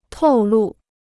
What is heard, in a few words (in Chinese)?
"透露", Chinese